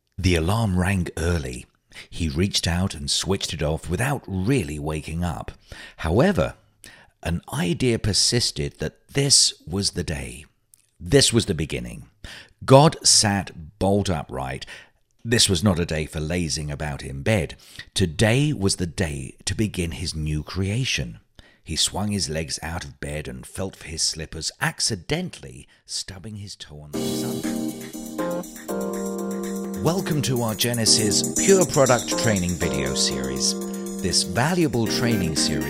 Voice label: Narration, Male voices